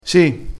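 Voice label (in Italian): "firmino", dialettale crespinese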